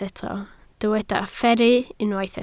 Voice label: "fferru", Welsh